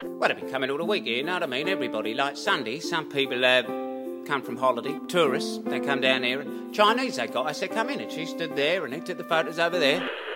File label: Cockney